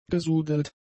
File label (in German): "gesudelt", german; adjectives